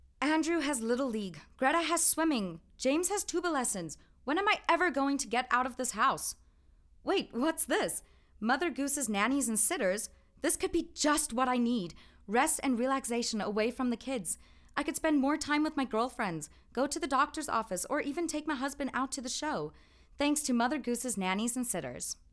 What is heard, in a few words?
Conversational